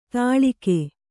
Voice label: "ತಳಿಕೆ", Kannada

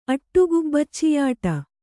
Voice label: "ಅಟ್ಟುಗುಬ್ಬಚ್ಚಿಯಾಟ", Kannada

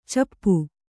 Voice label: "ಚಿಪ್ಪಿ", Kannada

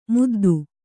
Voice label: "ಮುದ್ದ", Kannada

